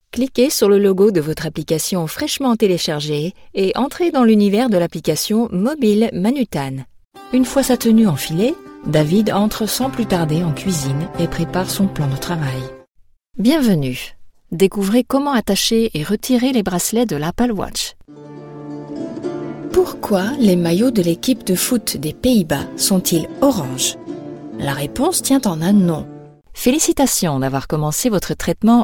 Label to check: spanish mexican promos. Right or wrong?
Wrong